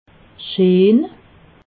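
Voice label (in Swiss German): "scheen", Alsatian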